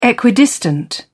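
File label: "equidistant", British English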